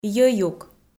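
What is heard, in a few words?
"йӧюк" Komi, female; kpv audio dict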